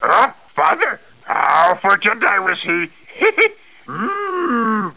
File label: powerful